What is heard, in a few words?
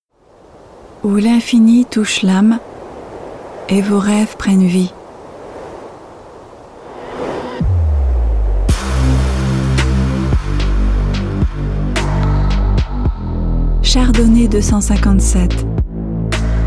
french promos